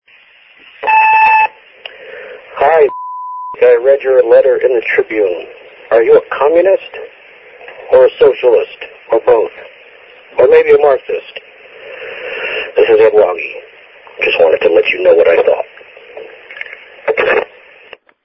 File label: phone call